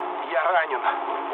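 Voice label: Russian damaged pilot mood low, speech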